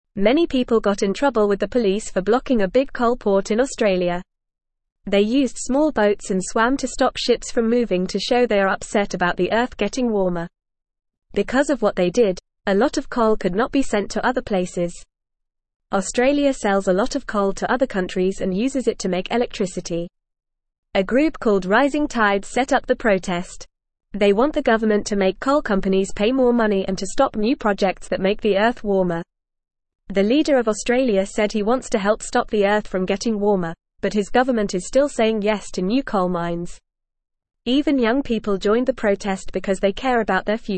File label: English Lower Intermediate FAST Reading; People Block Big Boats to Stop Coal